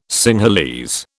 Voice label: "Sinhalese", British